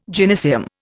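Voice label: "gynaeceum", Indian English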